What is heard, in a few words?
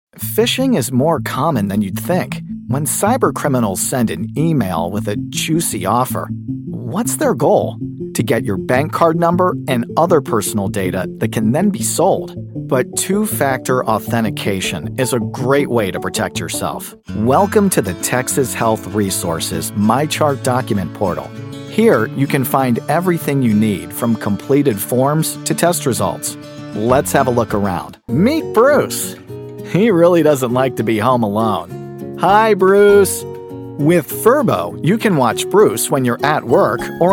Explainer